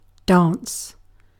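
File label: "dance", British English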